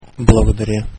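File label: "thanks", Bulgarian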